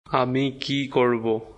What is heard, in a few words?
Bengali, Bangladeshi Accent; What should I do?; Aami ki korbo?